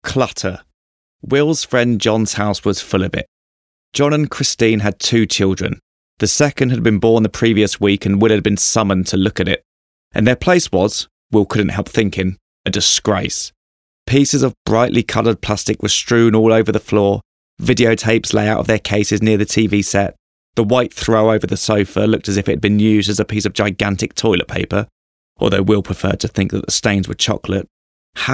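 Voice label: Audiobook; About A Boy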